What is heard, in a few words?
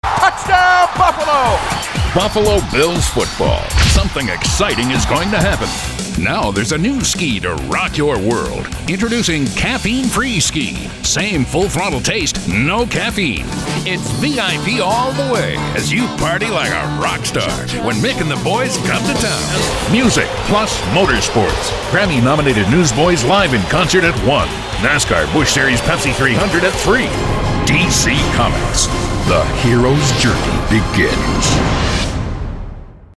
TV Hard Sell